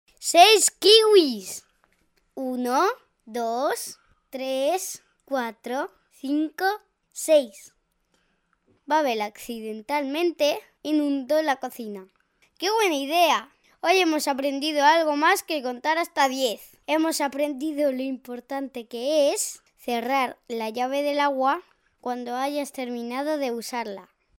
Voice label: locutor nino, Spanish child voiceover, 8 years; kid voice talent, locutor infantil